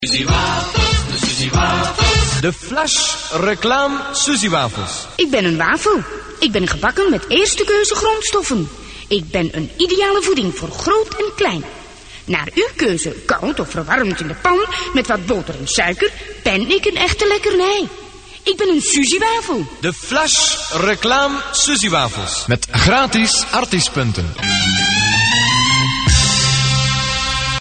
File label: commercial